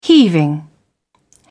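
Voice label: "heaving", British English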